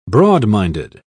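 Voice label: "broadminded", British English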